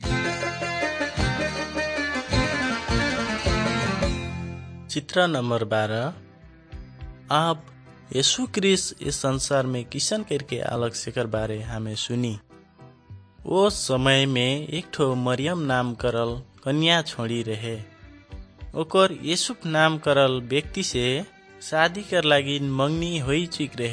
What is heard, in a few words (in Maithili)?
good news maithili, nt drama